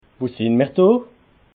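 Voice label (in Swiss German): "wo sinn mer do", Alsatian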